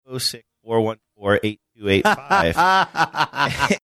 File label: saying voicemail line number simulating cutting in out; tbtl